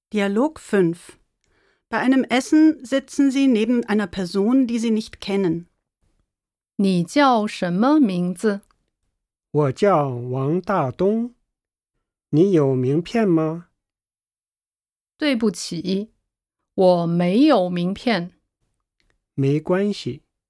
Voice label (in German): Dialog